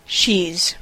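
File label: "she's", US English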